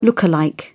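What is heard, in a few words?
"look-alike", British English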